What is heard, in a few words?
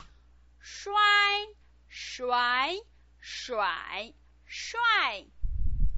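"shuai", Mandarin Chinese pinyin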